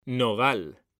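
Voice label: "nogal", Spanish; mekanta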